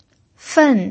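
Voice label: "fen", Mandarin Chinese, fourth tone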